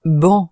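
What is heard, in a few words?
"banc", French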